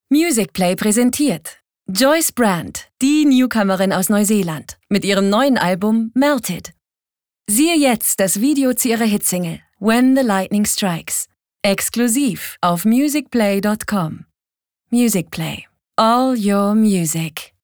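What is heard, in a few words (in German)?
advert, Freundlich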